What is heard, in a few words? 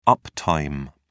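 "uptime", British English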